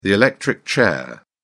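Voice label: "electric chair", British English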